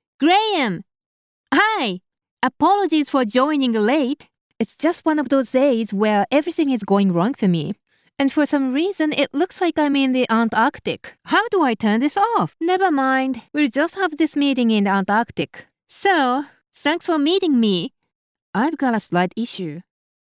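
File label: Japanese, female, English Dubbing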